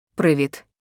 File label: Ukrainian Hello informal; Greetings